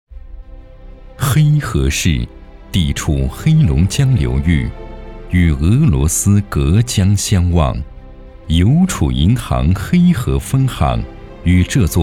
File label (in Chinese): 男, 课件, 教学片; 黑河; 任志宏风格